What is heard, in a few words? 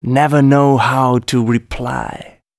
male, Dance spoken hooks